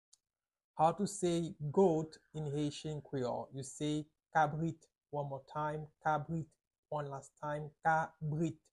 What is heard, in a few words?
How to say Goat in Haitian Creole Kabrit pronunciation by a native Haitian tutor